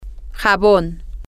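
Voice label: soap, Spanish, female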